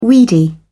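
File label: "weedy", British English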